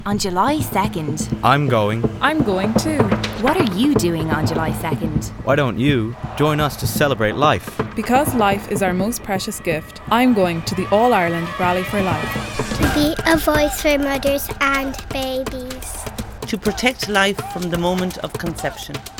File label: radio advert; rally for life